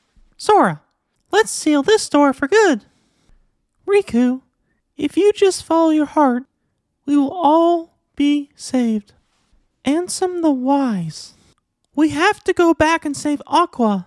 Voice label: Impression; Mickey Mouse